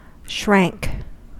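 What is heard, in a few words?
"shrank", American English; pronunciations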